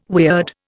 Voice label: "weird", Indian